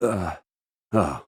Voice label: uhhh oh